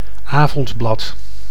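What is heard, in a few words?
"avondblad", Dutch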